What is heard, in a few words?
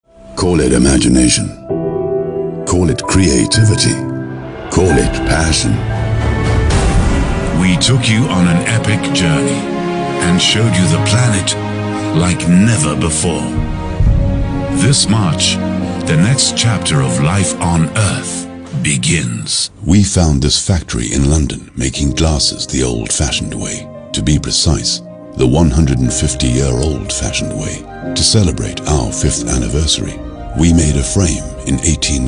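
English, seslendirme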